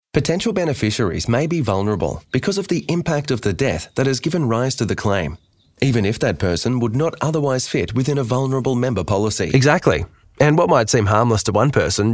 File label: e-Learning Educational Narration